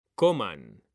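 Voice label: "COMAN", Spanish; mekanta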